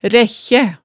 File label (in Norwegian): "rækkjæ", Hemsedal